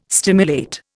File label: "stimulate", Indian